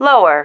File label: "lower", female